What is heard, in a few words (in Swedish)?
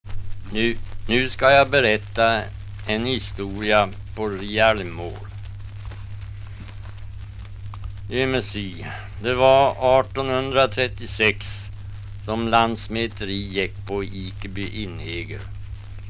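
Riala Uppland, dialekter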